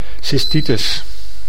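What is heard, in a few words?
"cystitis", Dutch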